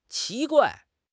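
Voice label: "qi2 guai4", Chinese; HSK; HSK3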